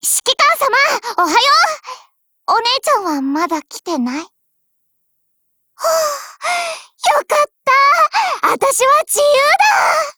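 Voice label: HELLO, Japanese; 97type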